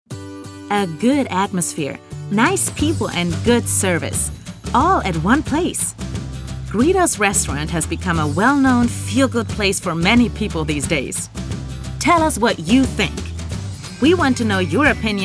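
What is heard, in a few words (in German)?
American English Fremdtake Freundlich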